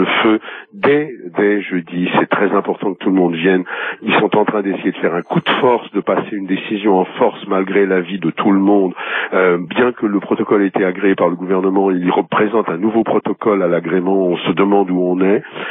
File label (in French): Manif intermittents répondeur